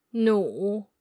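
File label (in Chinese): "nu", Mandarin Chinese, female; pinyin traps and pitfalls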